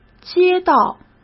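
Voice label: "接到", Mandarin Chinese; hsk; chinese-flashcards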